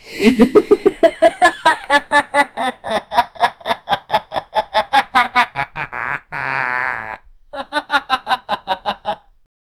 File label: teasing expression normal